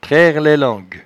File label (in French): "trairelailangue", patois jura